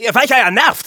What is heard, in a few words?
Scout taunts, de; "You wimps suck!"